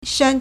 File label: "shan1", Chinese